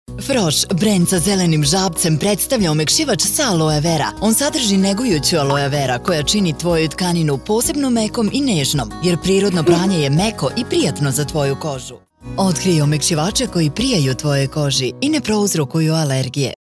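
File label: Serbian female voice over, sales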